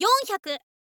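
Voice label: "yonhyaku", Japanese, girl; voice; info girl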